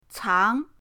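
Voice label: "cang", Mandarin pinyin, second tone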